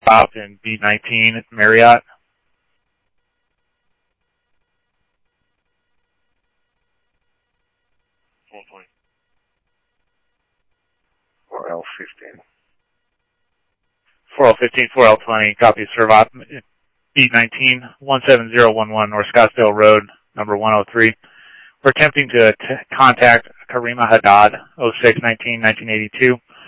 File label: Police, scanner audio